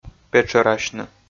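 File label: "петшӧрасьны", Komi, male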